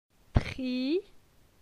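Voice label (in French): "Tri", French; phonetique; la syllabe i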